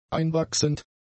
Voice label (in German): "einwachsend", german; adjectives